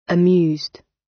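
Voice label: "amused", British English; Life, leisure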